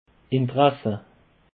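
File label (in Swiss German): "interesse", Alsatian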